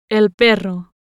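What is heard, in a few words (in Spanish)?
"el perro", Spanish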